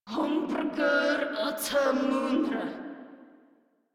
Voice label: Patrol Grineer Female; Warframe